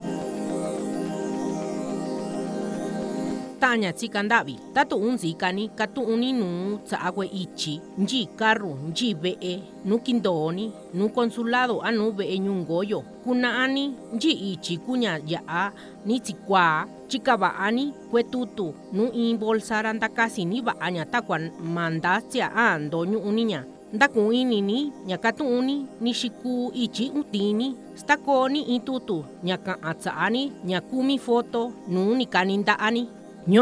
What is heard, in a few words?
MIXTECO